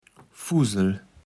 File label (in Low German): "Fusel", Platt